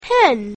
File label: "hen", English